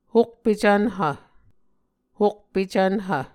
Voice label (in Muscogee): "hokpe cvnhv", Muscogee